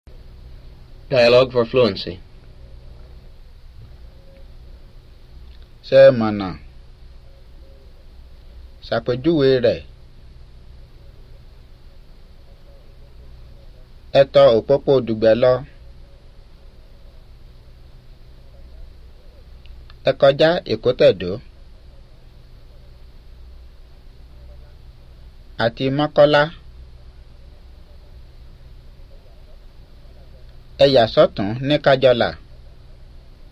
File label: Yoruba; FSI; Basic